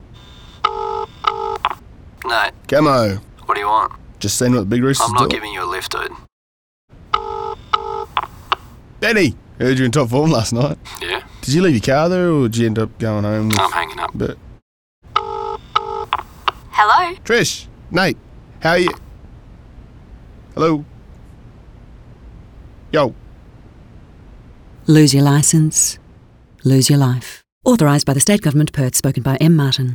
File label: radio ad; time with mum